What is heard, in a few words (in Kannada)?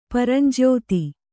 "ಪರಂಜ್ಯೋತಿ", Kannada